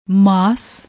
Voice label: "massss", Punjabi; ਮਾਸ

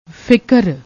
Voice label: "fikar", Punjabi

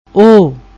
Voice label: "ohh", Punjabi; ਓਹ; اوہ; interjection

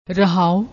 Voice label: "rahao", Punjabi

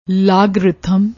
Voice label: "logritham", Punjabi

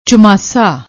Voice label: "chumaasa", Punjabi; ਚੁਮਾਸਾ

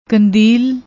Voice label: "kandeel", Punjabi; ਕੰਦੀਲ

